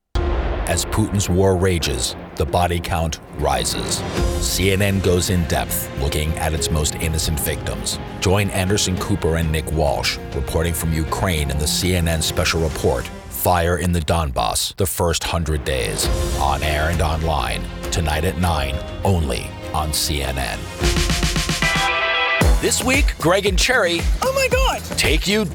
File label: trailers promos